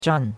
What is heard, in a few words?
"zhan4", chinois; Cours-de-Chinois